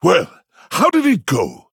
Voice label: Male Pandaren General